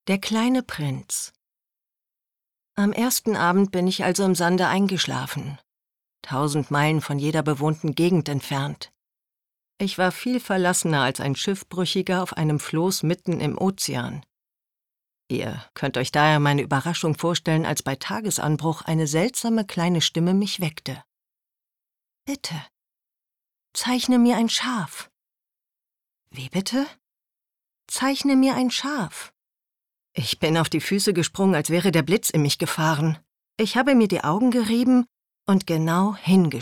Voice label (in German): erzaehlung